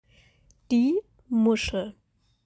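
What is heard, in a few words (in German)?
"Die Muschel", German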